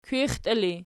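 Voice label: "kwixtii" ergative, Archi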